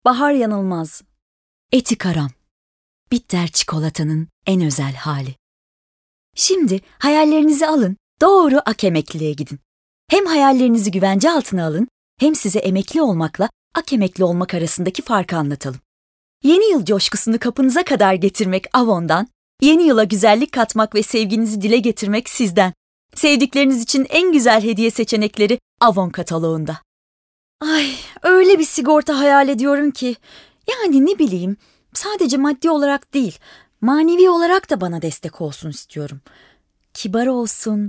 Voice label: REKLAM

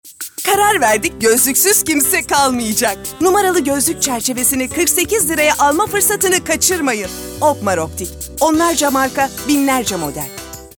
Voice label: Neşeli

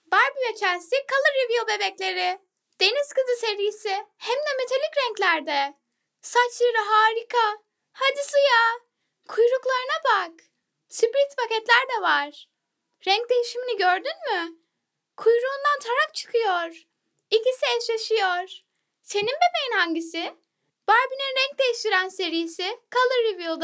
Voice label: Reklam

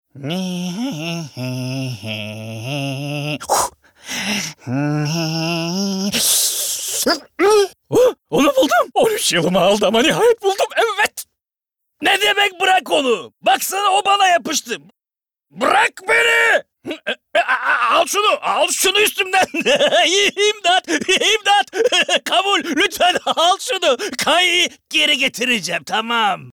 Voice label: Animasyon

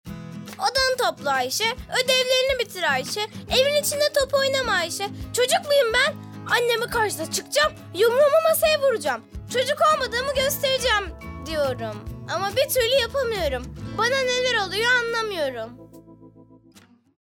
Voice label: Reklam

